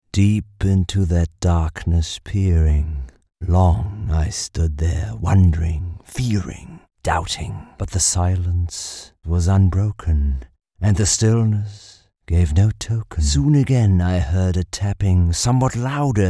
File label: English Narration Voicereel